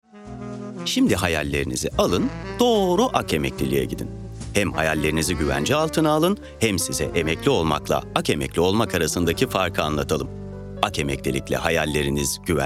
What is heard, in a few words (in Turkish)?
sicak samimi